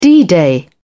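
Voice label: "d-day", British English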